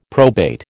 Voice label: "probate", American

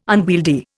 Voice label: "unwieldy", Indian

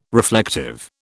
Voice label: "reflective", British

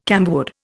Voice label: "camwood", Indian

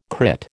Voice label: "crit", American

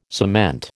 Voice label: "cement", American

